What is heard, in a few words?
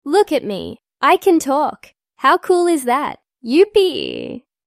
Voice Woman